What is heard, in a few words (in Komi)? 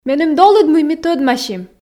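меным долыд мый ми тӧдмасим, Komi, female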